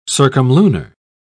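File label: "circumlunar", British English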